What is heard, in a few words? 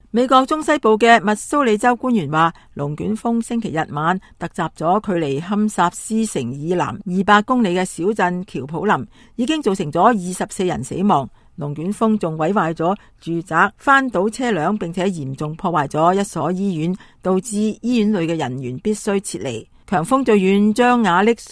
news US Tornados, cantonese